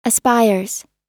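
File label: "aspires" US English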